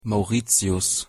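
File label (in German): "mauritius", German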